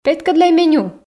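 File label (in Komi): петкӧдлӧй меню, Komi, female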